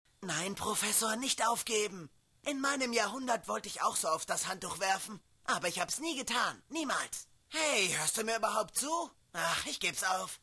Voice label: German